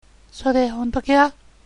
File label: "kya", dialect